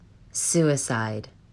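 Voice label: "suicide", US English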